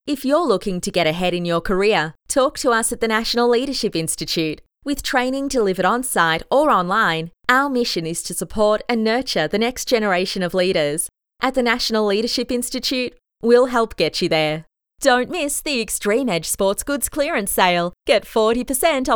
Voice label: Retail Friendly

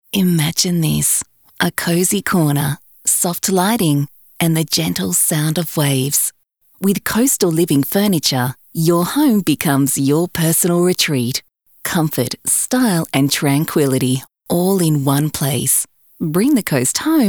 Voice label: Relaxed

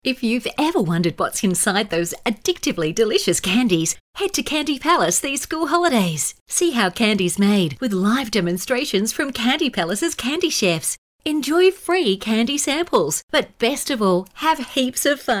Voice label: Hard Sell

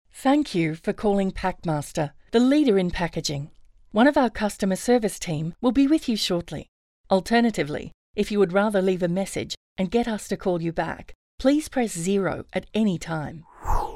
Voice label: On Hold

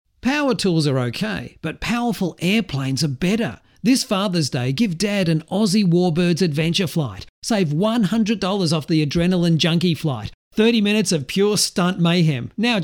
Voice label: Retail Friendly

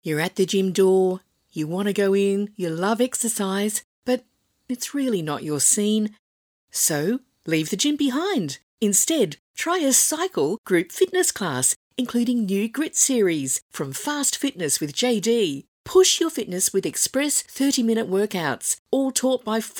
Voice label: Conversational

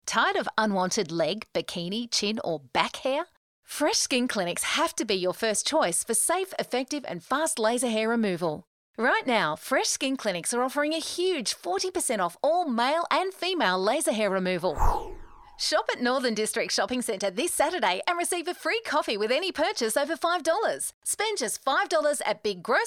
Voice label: Retail Friendly

